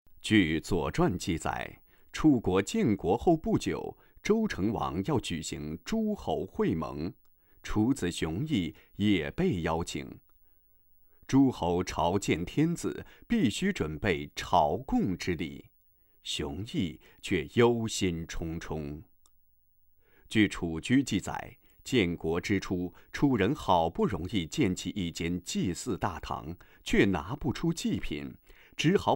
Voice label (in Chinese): 解说词